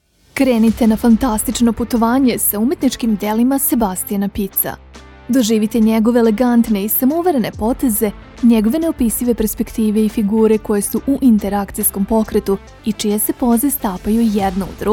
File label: serbian promos; Professional Serbian native voice-over actor from Belgrade